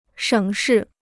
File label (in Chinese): "省事", Chinese